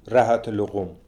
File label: "Rahat Lokoum", pronounciations; Fourth Way; Beelzebub